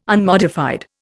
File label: "unmodified", Indian